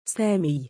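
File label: "saamii", Arabic, male; given name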